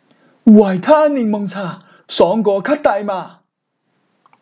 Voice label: 粤语广告词

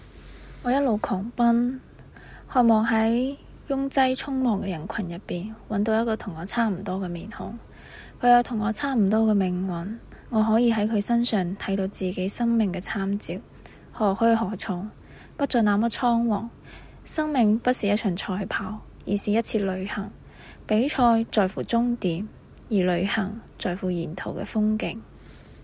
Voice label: 粵語 試音

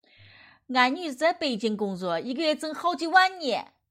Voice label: 大妈

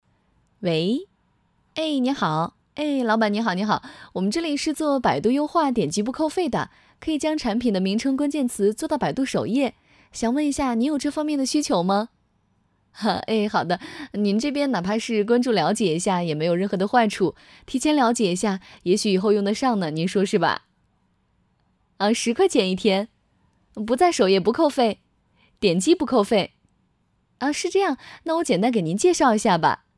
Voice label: 电销话术; 电销话术样音

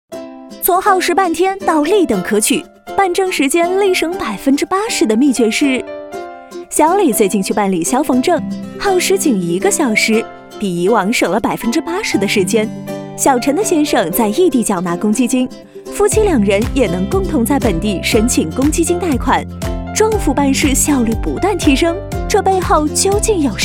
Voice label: 轻松年轻解说科普

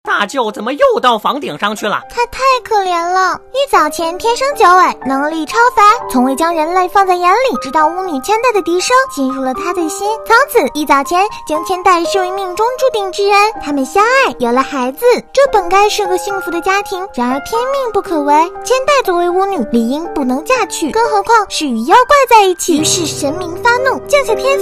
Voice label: 萝莉音 解说

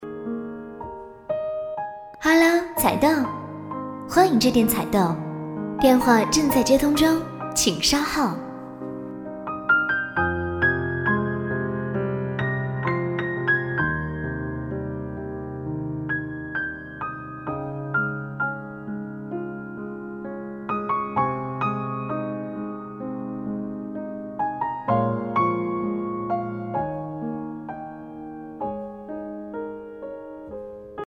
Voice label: 彩铃; 采豆

